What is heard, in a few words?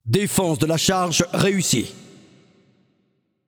Announcer French Match Payload Enemy Failed; paladins